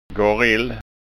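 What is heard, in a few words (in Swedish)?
"garill", Scanian Swedish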